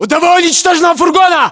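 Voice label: landmark near van destroyed, battlechatter, Russian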